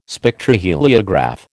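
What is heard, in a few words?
"Spectroheliograph", American English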